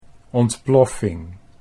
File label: "ontploffing", Dutch